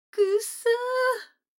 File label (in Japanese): 女の子台詞